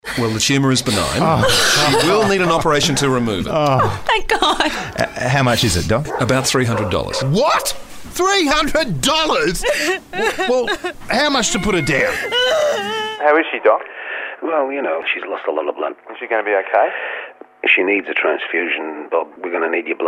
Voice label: VOICE ACTING